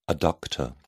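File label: "adductor", British English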